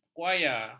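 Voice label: "kuaya", Malay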